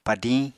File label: "padiñ", Apatani; Material Culture